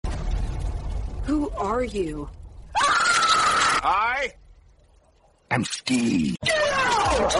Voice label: scream get out; i am steve